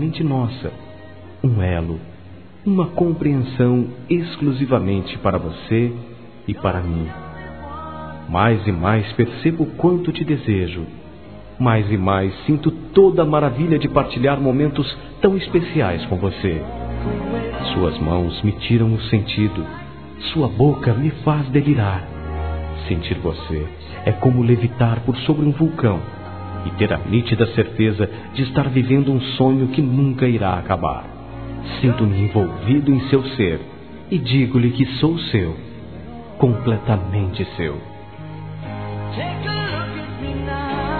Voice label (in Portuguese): Entre Nós, Sensuais, masc; Telemensagens